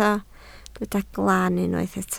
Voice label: "glan", Welsh